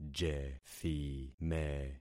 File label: "jethime", concat syllables; Affixing experiment